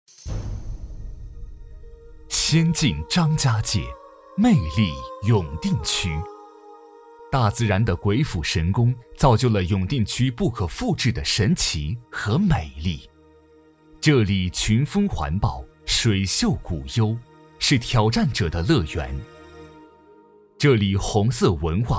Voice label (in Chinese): 男 宣传专题 历史传承; 张家界永定区